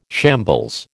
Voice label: "shambles", American